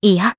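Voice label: Vox Deny, Japanese; Scarlet; kingsraid audio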